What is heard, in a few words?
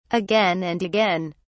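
"again and again", British English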